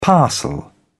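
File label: "parcel", British English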